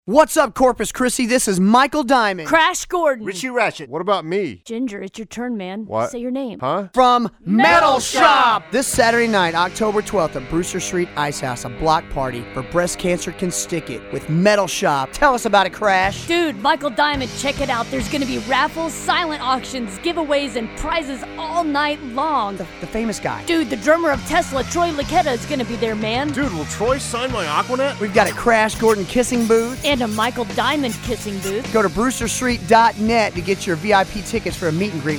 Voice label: promo; 106.5 the shark; corpus christi